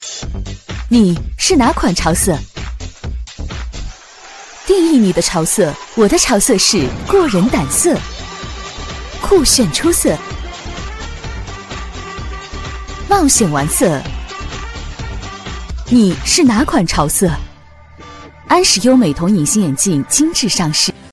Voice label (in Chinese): 女 广告 品牌形象 隐形眼镜